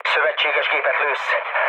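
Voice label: Hungarian player friendly fire mood low, speech; War Thunder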